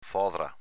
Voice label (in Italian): "fodra", dialetto veneto